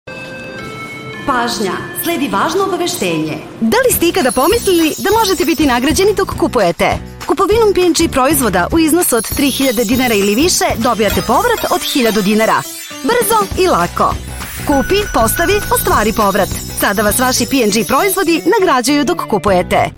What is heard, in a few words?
TVC, serbian